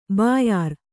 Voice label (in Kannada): "ಬ್ಯಾರ", Kannada